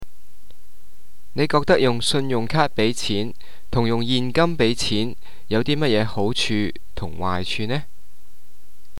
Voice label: Cantonese, talking about the means to pay; 廣東話提示